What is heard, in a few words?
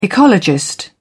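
"ecologist", British English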